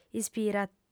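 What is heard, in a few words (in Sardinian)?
"ispira", Sardinian, female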